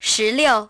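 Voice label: "ten six", English; iOS 4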